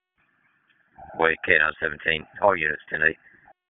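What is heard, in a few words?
Sheriff's Office Dispatch; WSO DISP 1; wake p25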